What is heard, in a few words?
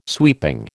"sweeping", American